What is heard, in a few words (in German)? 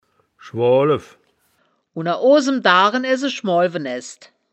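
"schmoolw", Wittlicher Wörterbuch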